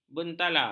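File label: "bentala", Malay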